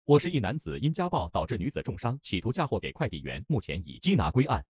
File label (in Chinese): 结算语音